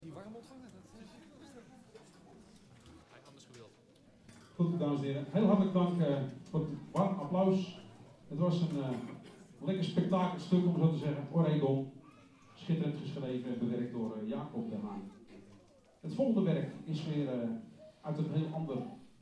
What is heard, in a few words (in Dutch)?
aankondiging mountain wind